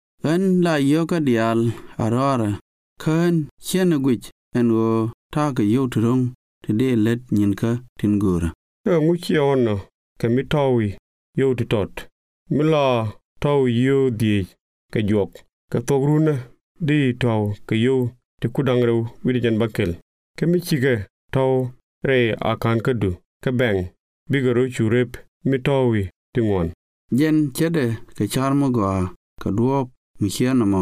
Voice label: saving money nuer

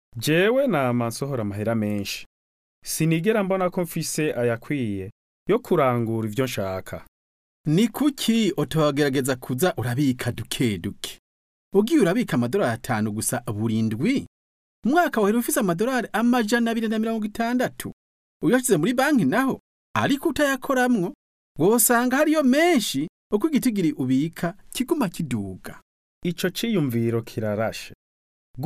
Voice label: saving money kirundi

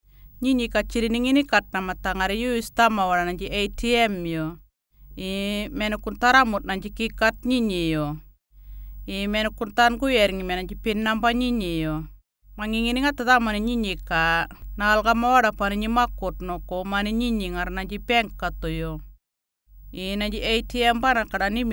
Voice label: atm murrinpatha

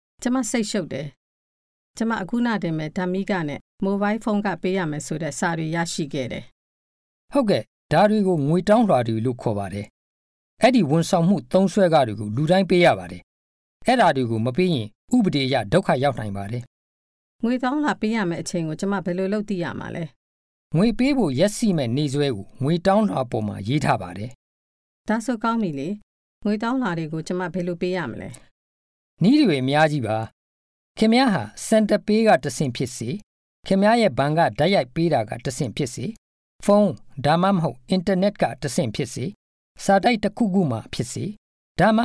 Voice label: paying bills burmese

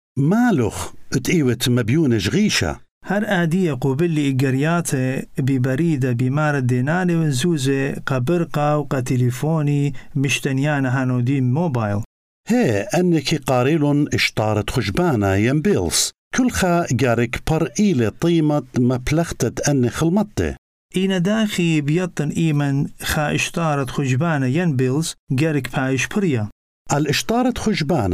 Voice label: paying bills assyrian

